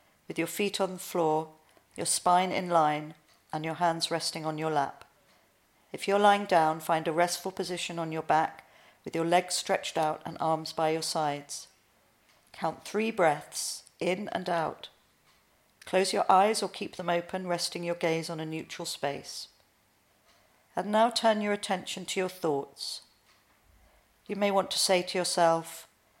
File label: Relaxations Kind Words; Dorothy House